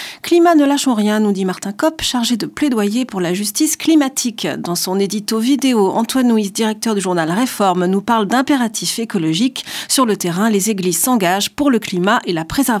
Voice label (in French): Spot radio; Regards protestants